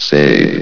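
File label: "save", male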